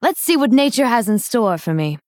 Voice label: Female Dryad; ASTRAL COMMUNION